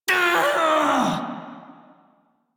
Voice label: Death, Male; Dialog; Warframe; Railjack Crew; Red Veil